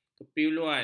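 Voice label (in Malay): "kepiluan", Malay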